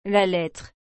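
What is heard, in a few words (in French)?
"la lettre", French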